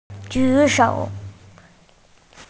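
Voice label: "jǔ shǒu", Mandarin Chinese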